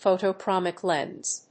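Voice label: "photochromic lens", English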